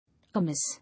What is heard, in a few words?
"Amiss", English